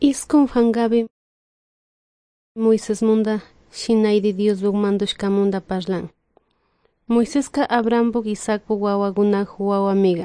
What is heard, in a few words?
good news quichua de salasaca